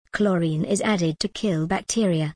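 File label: British English, chlorine is added to kill bacteria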